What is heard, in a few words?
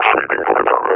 reconnoiter start, officer; combine; Half Life Alyx; Half Life Model Pack